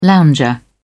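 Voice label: "lounger", British English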